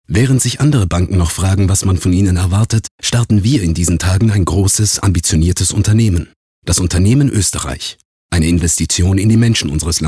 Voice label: Werbung

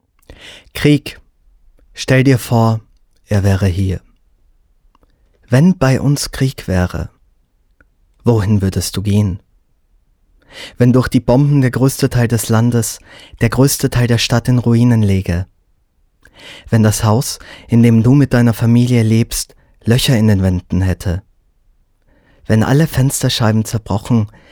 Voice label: Lesung

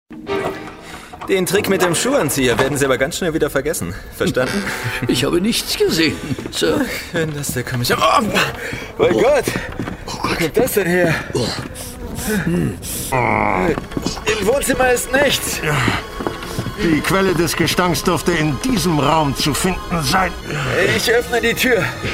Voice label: Hoerbuch

